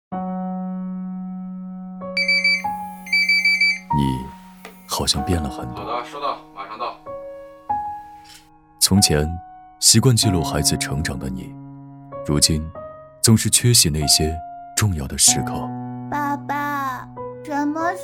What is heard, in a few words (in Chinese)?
男 走心温暖; 警察节; 你没有变